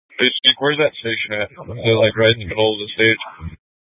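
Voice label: Incident Tac 3, Scanner audio recording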